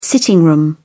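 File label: "sitting room", British English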